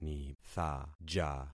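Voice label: "nithaja", concatenated syllables; Affixing experiment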